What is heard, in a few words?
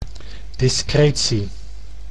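"discretie", Dutch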